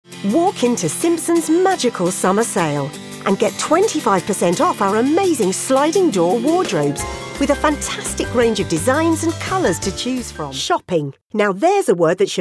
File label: Commercial Showreel